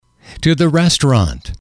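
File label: "to the restaurant", English, male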